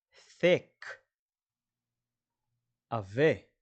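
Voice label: "thick" English, "עבה" Hebrew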